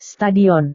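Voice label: "stadion", Indonesian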